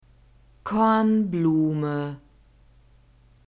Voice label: "kornblume", german; nouns gender feminine